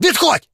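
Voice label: otstup, Ukrainian; combat ukr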